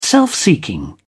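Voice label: "self seeking", British English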